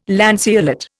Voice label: "lanceolate", Indian